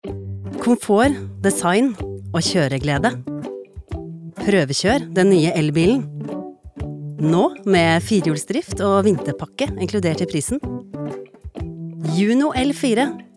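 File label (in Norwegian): reklame, Norwegian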